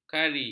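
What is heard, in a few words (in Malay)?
"kari", Malay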